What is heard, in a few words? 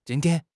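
"jin1 tian1", Chinese; HSK1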